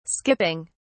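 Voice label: "skipping", British English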